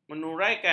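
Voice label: "menyuraikan", Malay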